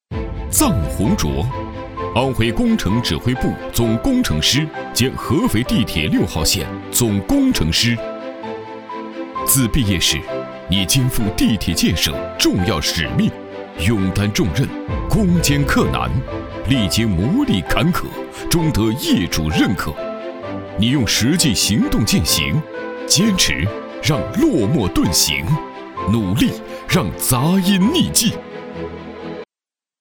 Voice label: 男 颁奖

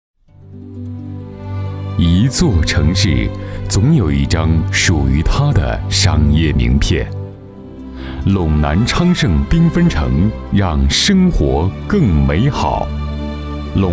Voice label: 男 地区经济解说

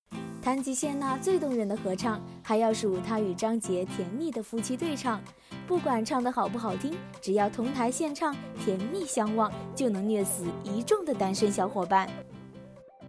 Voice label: 综艺类

